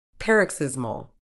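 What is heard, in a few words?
"paroxysmal", medical English, British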